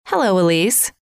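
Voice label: "hello", female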